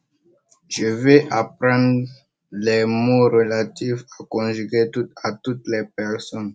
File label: voice message